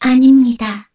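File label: Vox Deny, Korean; Kara; kingsraid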